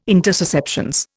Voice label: "intussusceptions", Indian